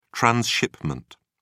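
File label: "transshipment", British English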